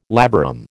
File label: "labarum", American